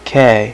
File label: "k" male, voice alphabet; Web Clip Empire 150,000